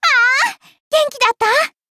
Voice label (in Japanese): touch, ja; Cookie Run